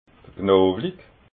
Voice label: "knowlig", alsace

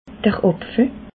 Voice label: "apfel", alsace

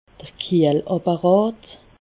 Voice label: "kiehlapparat", alsace

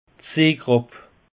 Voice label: "seekrapp", alsace; peche

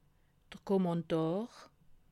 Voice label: "kommentar", alsace

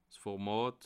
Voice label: "format", alsace

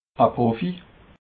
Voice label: "profi", alsace

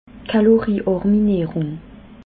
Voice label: "kaloriarmi", alsace

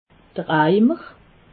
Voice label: "eimer", alsace

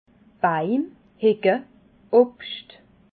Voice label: "baim", alsace

